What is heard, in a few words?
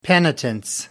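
"penitence", English, British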